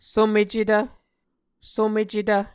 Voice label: "somecetv", Muscogee

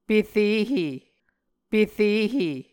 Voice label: "perehe", Muscogee

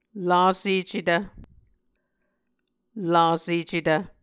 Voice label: "lasecetv", Muscogee

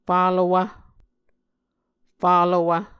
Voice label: "falowv", muscogee

